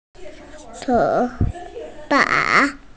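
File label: "chú fáng", Mandarin Chinese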